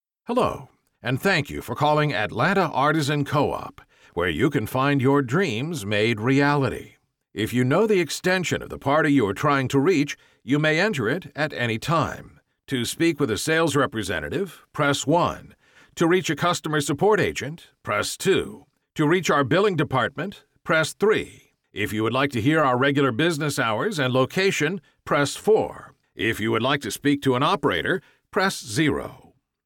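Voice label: Telephony Relaxed Believable Direct, American Male Voice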